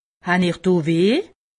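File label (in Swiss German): "weh", Alsatian; medecine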